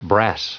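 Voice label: "brass", English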